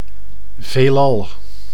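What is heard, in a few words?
"veelal", Dutch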